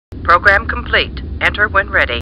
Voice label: Computer Voice; Star Trek; StarTrekLCAR